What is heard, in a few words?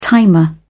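"timer", British English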